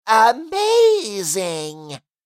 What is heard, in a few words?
Amazing cartoon voice funny comedy